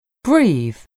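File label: "breathe", English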